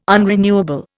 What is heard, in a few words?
"unrenewable", Indian